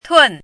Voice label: "tun4", chinese voice